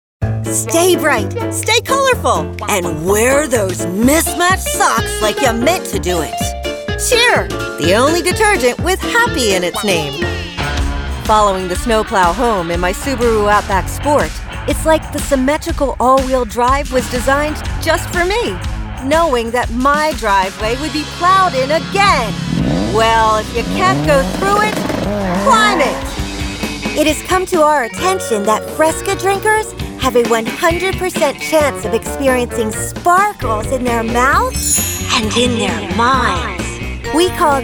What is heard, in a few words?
English Commercial